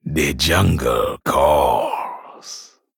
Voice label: Male Troll